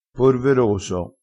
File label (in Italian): "porveroso", crespinese